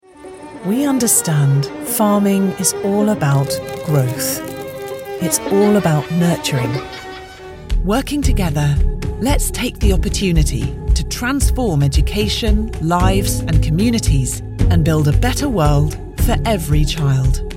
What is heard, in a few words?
Corporate reel